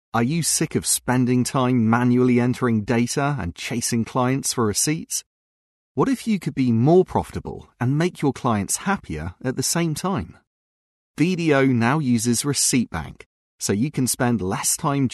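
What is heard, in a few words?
English UK Male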